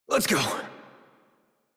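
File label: horse start adult, English; Warframe; Duviri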